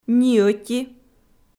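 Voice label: "ниӧти", Komi-Zyrian, female; kpv audio dict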